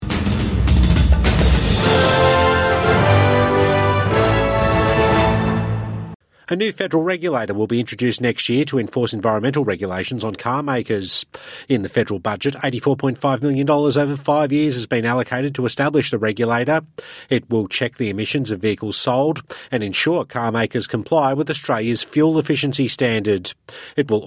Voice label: english; New federal regulator for environmental standards for vehicles; SBS News